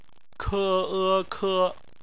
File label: "ke", Chinese; bpmf